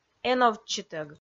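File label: "эновтчытӧг", Komi, female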